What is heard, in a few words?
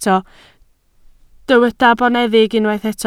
"boneddig", Welsh